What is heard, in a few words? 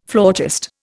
"flautist", Indian